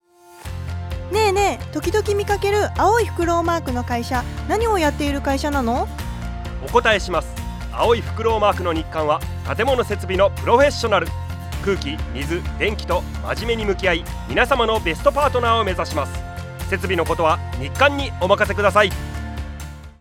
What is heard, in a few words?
radio cm